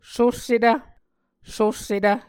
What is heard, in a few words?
"sossetv", Muscogee